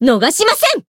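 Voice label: Voice finish, Japanese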